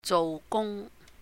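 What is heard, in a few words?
"jouh gùng", Cantonese; do work, job; 做工; holidays and festivals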